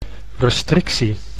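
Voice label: "restricitie", Dutch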